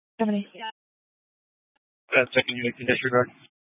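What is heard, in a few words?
Police Dispatch, Scanner audio recording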